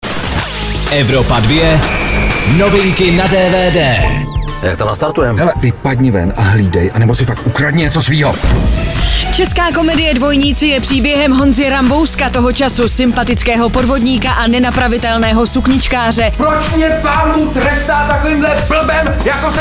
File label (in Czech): DVD NEWS; evropa2; Dvojníci